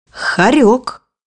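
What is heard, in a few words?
"ferret", Russian; bukvar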